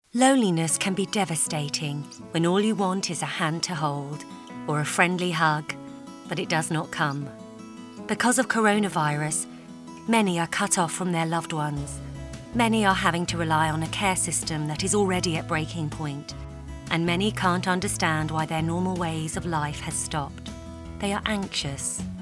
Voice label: EMOTIONAL; CORONA